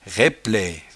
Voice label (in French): "raippelaie", patois jura